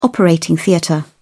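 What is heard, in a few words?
"operating theatre", British English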